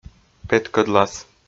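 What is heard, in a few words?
"петкӧдлас", Komi, male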